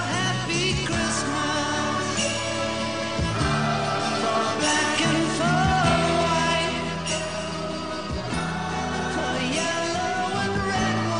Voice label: natal pesso especial fem, Natal para Amiga – Voz Feminina

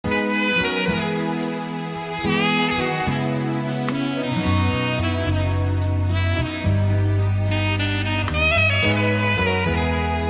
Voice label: natal evangelic, natal romantica voz masculina; evangelica

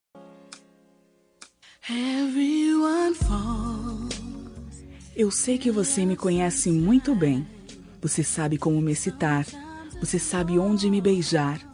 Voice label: erotico, Telemensagem Erótica – Voz Feminina

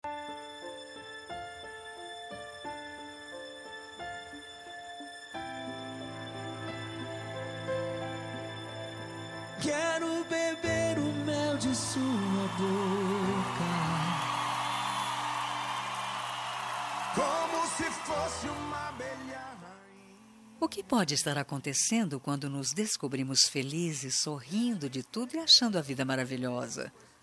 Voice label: paquera fem, Voz Feminina; Telemensagem de Conquista

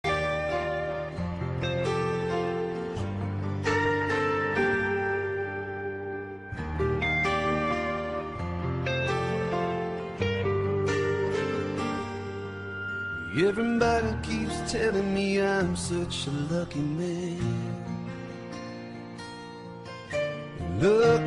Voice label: aniversario pessoa especial, Telemensagem de Aniversário de Pessoa Especial – Voz Feminina

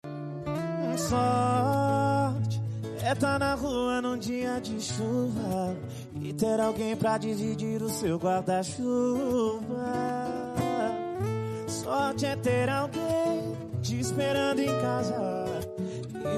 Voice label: dia dos namorados namorado, Dia dos Namorados – Para Namorado – Voz Feminina

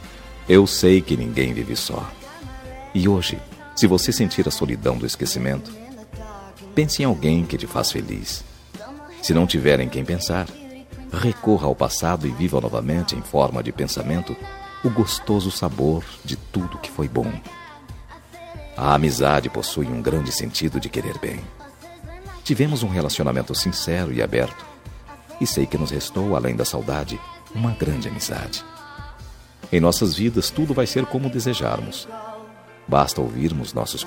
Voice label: dia dos namorados ex masculina; para ex namorada

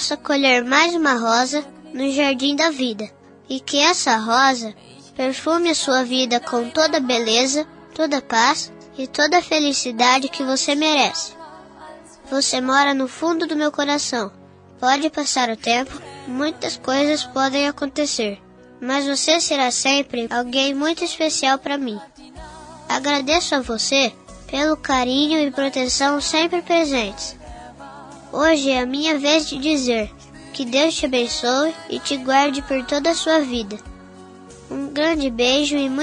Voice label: voz infantil madrinha voz masculina; Aniversário Voz Infantil – Madrinha – Voz Masculina

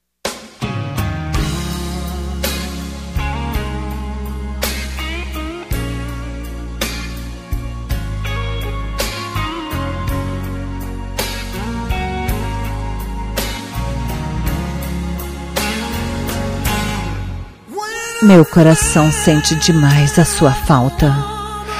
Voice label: reconciliação feminina, Telemensagem de Reconciliação – Voz Feminina

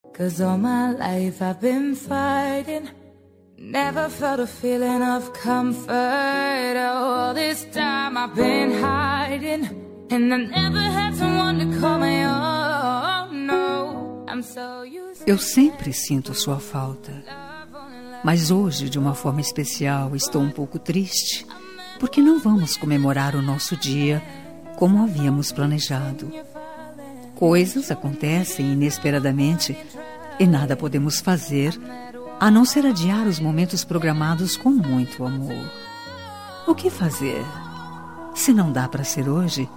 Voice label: dia dos namorados distante, voz feminina

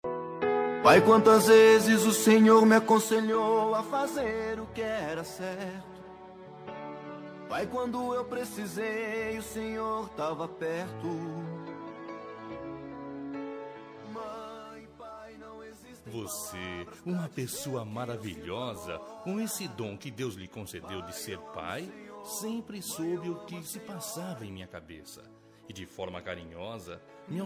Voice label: masc, Dia dos Pais – Voz Masculina

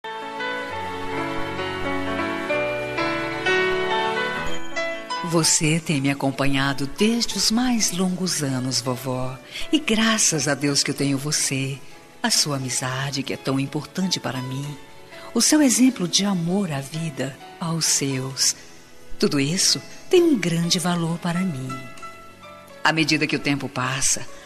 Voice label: dia da avó, voz feminina; dia dos avos para avo

